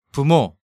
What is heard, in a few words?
"Bumo", Korean